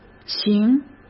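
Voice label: "形", Mandarin Chinese; hsk; chinese-flashcards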